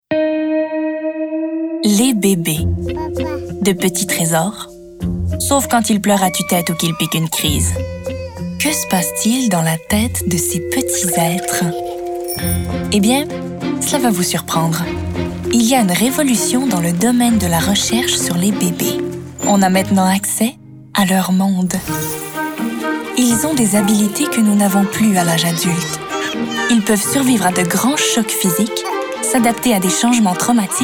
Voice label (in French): Démo Documentaire; La vie secrète des Bébés